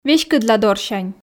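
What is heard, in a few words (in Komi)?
"веськыдладорсянь", Komi, female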